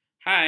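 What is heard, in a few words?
"hai", Malay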